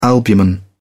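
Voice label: "albumen", British English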